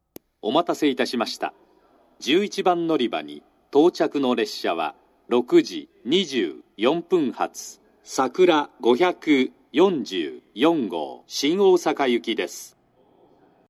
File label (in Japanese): 11番のりば接近放送, 新幹線ホーム; 筑後船小屋; 11番のりば接近放送（さくら544号 新大阪行き）